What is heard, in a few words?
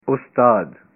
teacher, punjabi